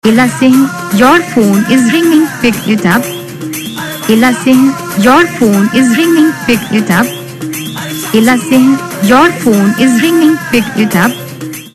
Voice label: your phone is ringing saying, Ringtones; Your Name Ringtones A To Z Names

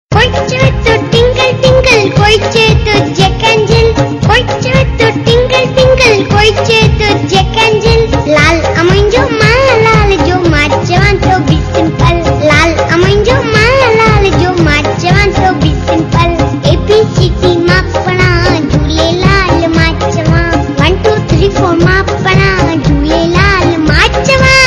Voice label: sindhi poem

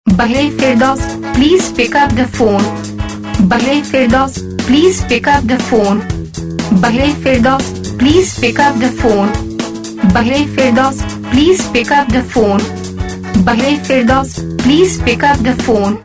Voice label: pick up the phone saying, Ringtones

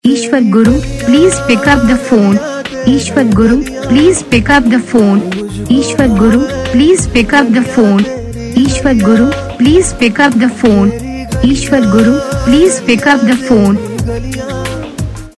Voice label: pick up phone saying, Ringtones

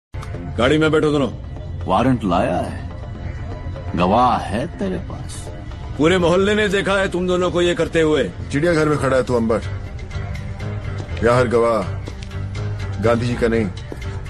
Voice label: Dialogue Ringtone

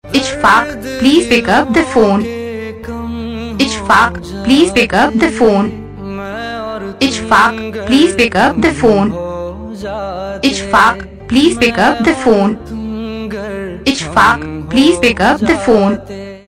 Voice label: pick up phone saying, Ringtones

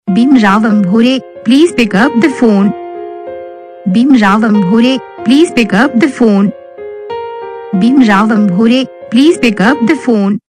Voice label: Bhimraw Ambhore pick up phone saying

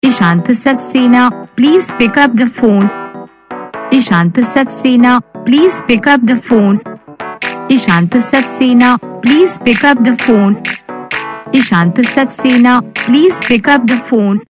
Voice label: pick up phone saying, Ringtones

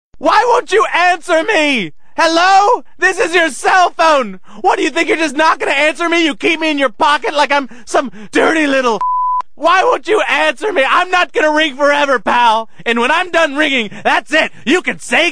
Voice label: Funny Answer Me, Ringtones

